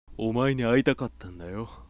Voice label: sample sweet low, voice